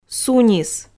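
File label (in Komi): "сунис", Komi, female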